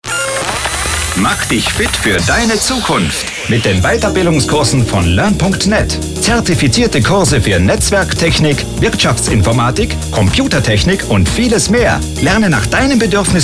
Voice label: Spot MEDIUM